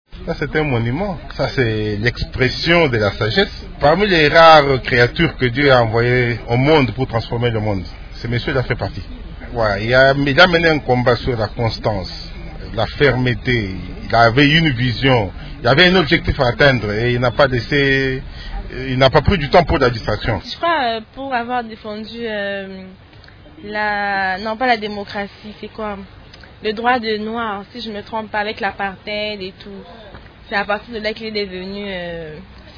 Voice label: vox pop; mandela